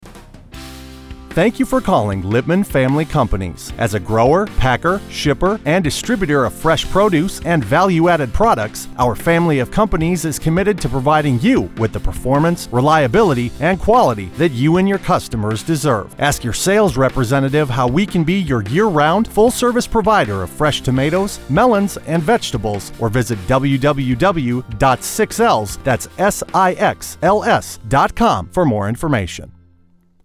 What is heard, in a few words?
On Hold